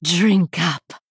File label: Female Blood Elf Attack